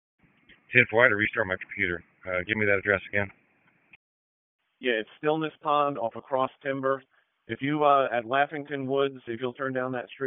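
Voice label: Sheriff's Office Dispatch; WSO DISP 1; Wake; p25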